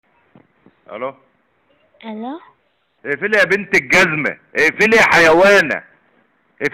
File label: Call recording Emergency number